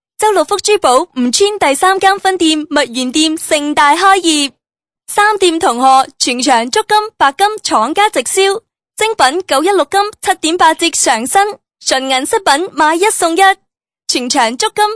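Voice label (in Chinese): 女声【粤语】珠宝促销; 周六福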